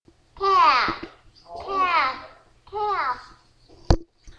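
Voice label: "can", spoken word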